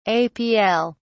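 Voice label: "apl", British English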